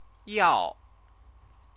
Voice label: "yao", Chinese

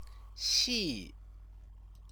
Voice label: "xi", Chinese

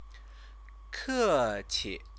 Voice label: "keqi", Chinese; Chinese lessons

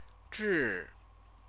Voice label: "zhi", Chinese

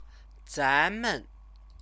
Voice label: "zanmen", Chinese; 咱 们; we, us; pronoun; Chinese lessons

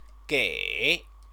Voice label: "gei", Chinese

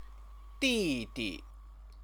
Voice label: "didi", Chinese; 弟 弟; younger brother; noun

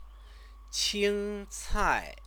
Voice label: "qingcai", Chinese; 青 菜; green vegetable; noun; Chinese lessons

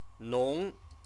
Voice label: "nong", Chinese

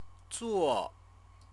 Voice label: "zuo", Chinese

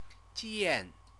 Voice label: "jian", Chinese; CTCFL; University of Oxford

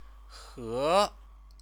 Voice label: "he", Chinese; 河; river; noun

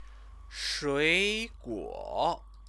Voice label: "shuiguo", Chinese; Chinese lessons

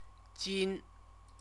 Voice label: "jin", Chinese

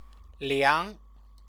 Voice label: "liang", Chinese

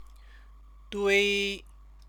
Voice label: "dui", Chinese; 堆; pile up; verb; Chinese lessons

